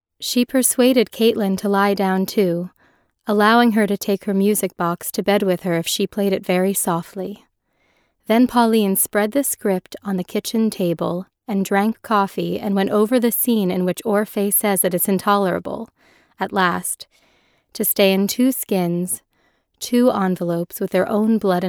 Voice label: Corporate

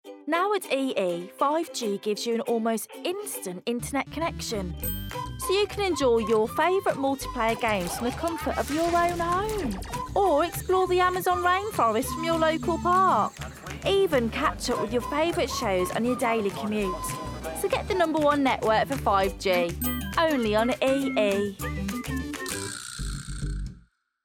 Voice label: Commercial; EE

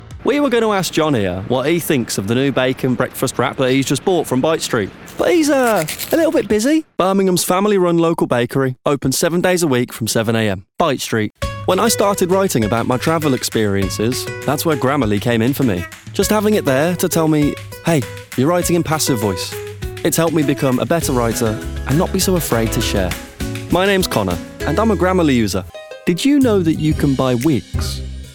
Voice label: Commercial Reel

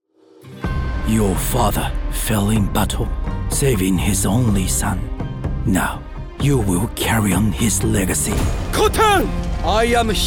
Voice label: Gaming Reel English Japanese

